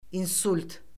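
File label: "insult", Azerbaijani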